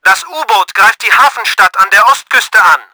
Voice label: ATTACK, german; Battle Engine Aquila

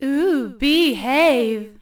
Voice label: girl; norleans

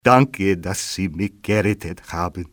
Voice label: thank, civ male; XBOX

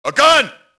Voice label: police alert; Max Payne

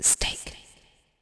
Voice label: female; Stake Fortune Fighters; XBOX

